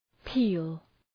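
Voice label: "peal", English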